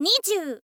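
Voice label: "nizyuu", Japanese, girl; voice; info girl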